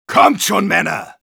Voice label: jeers, de; Engineer